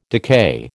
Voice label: "decay", American